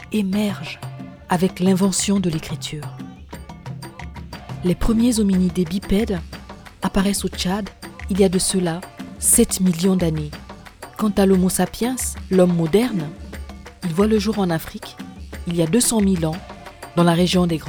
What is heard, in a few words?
Human History French Believable